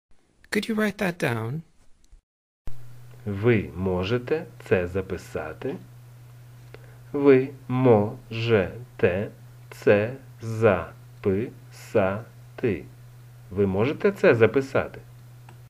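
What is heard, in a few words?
Ukrainian Could you write that down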